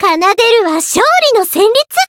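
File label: Voice win, Japanese; melody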